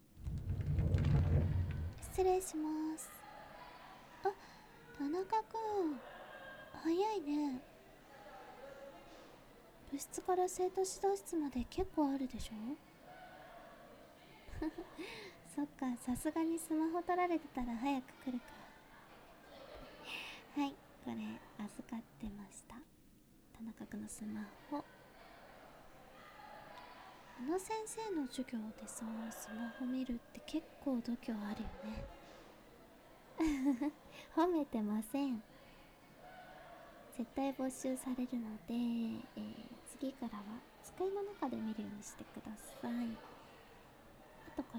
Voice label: バイノーラル, ASMR; JKかなめの学校生活

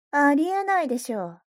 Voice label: mob 女子 台詞

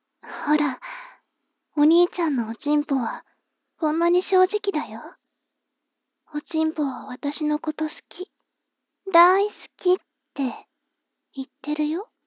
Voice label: 妹 台詞

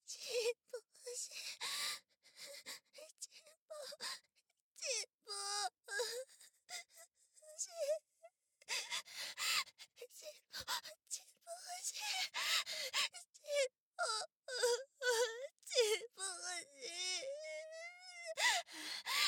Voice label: お嬢様ちんぽボイス（右）苦しみ度 中

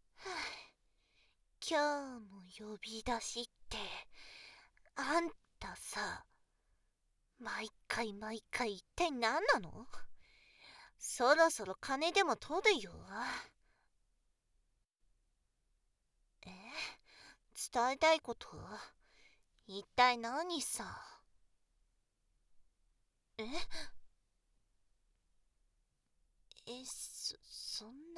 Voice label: フリートーク

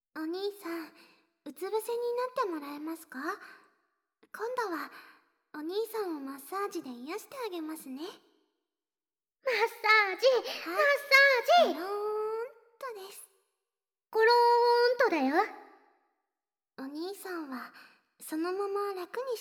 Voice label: 全身マッサージ, ASMR; 優花と薙凪の

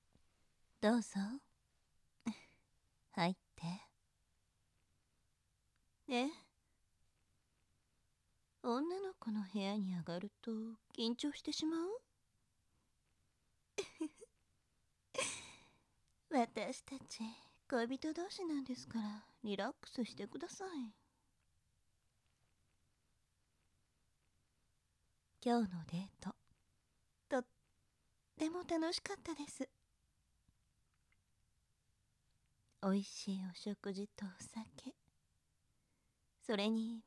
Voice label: キスと甘い囁き ボイスのみ

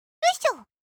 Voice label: システムボイス

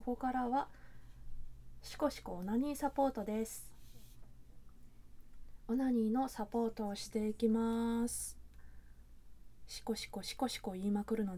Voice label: ノイズ部分カット シコシコ 連呼 オナサポ + オナニー 実演 カウントダウン ステレオ; オマケ 鈴の音などをカットした音声

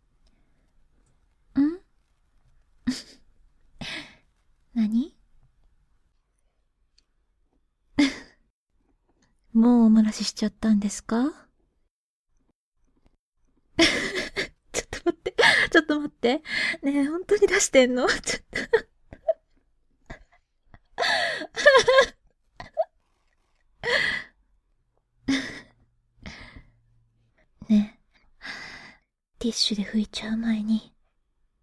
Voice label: サンプルボイス, ASMR

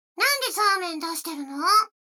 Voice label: 女の子台詞

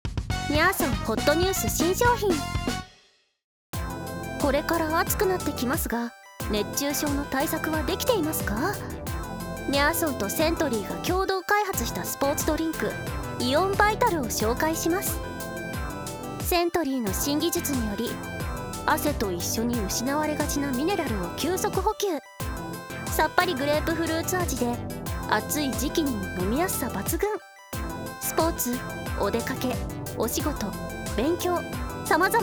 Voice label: 店内放送; 店内放送 イオンバイタル編

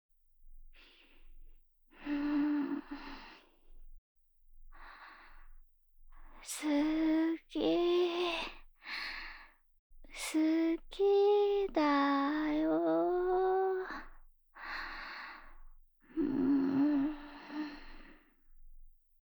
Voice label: 「すぅきぃ……すぅきぃだぁよぉぅ……」 ※寝言; ラブラブのイチャイチャ

